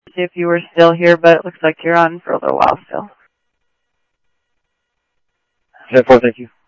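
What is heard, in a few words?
Mesa Police Department, scanner audio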